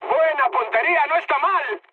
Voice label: bomb success, mood high, speech; War Thunder